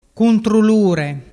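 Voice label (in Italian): "cuntrullure", Vernazzese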